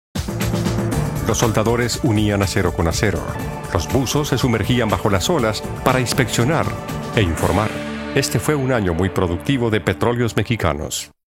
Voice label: Docu; Pemex